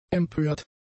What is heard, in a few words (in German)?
"empört", german; adjectives